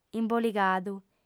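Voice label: "imboligadu", female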